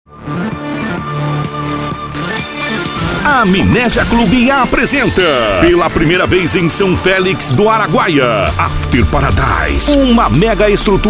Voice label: Locutor Propaganda Comercial Festa

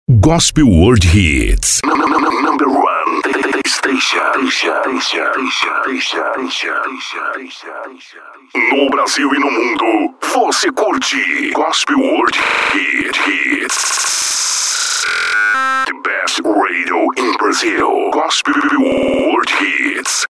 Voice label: Locutor Vinhetas; Jovem Pan; Golpel Word Hits

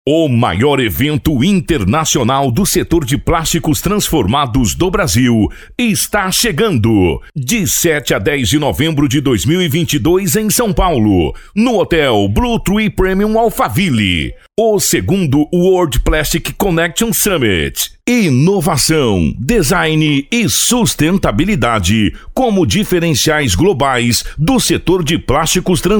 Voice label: Locutor Apresentacao; The world plastic in brazil

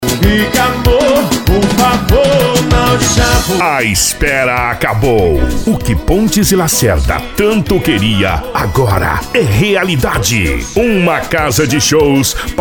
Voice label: Locutor, Propaganda, Bailao de forro

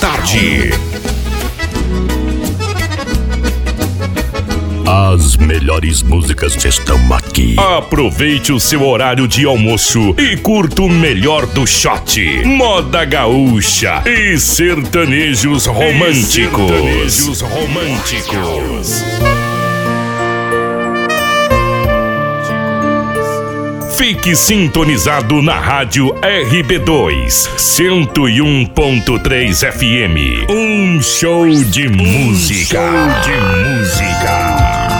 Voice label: Locutor Midia Programa de radio

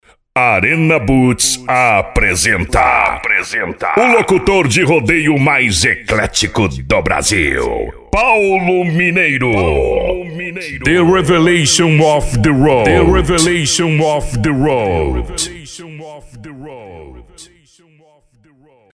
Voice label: Locutor Rodeio

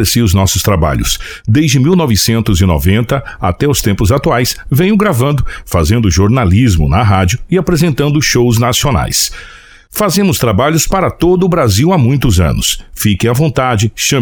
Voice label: Locutor Apresentacao

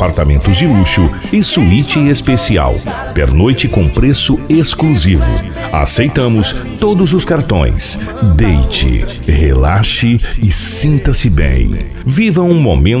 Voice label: Locutor Propaganda Motel; Lumini Motel

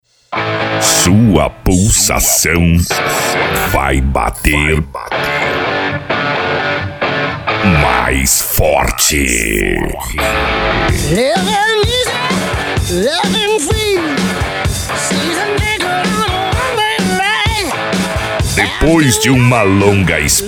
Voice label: Locutor Flash Back; Encontro dos Coroa (Quarentoes)

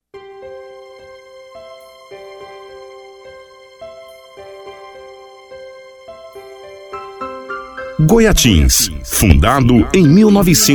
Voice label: Locutor, Aniversario; Aniversario Cidade de Goiatins

